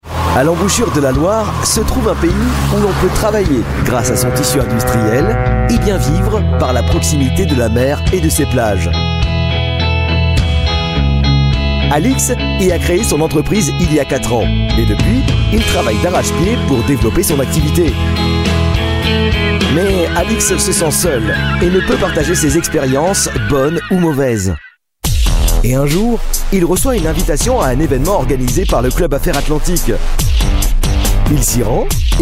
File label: french voice over male narrator